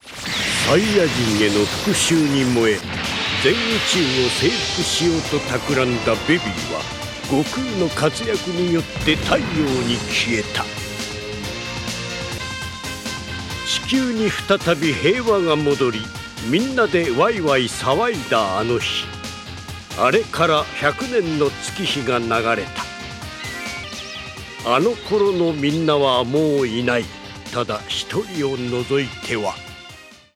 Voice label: narrator; dragon ball gt tv special